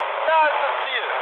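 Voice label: German near waypoint mood med, speech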